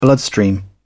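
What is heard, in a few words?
"bloodstream", British English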